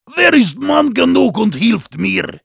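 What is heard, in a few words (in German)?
help me defend, German; Heavy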